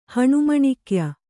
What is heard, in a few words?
"ಹಣುಮಣಿಕ್ಯ", Kannada